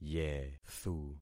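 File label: "yethu", concatenated syllables; Affixing experiment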